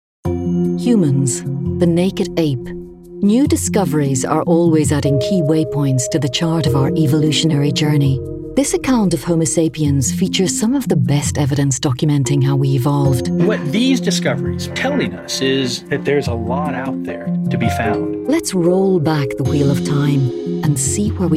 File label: voiceover narration showreel